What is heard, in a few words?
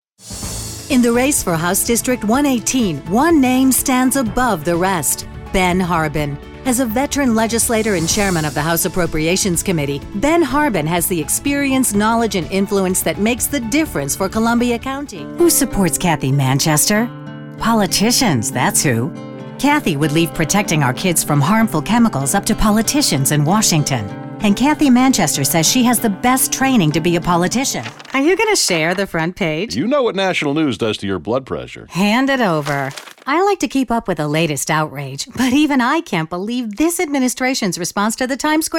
Female Political, radio